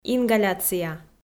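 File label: "ингаляция", Komi, female; kpv; audio dict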